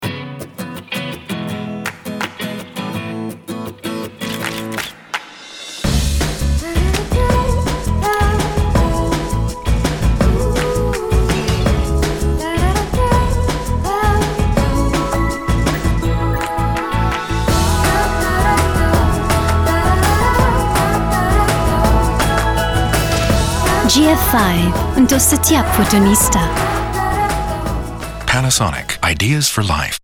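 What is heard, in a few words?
Malay; Panasonic Tokyo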